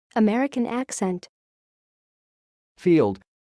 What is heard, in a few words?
"field", English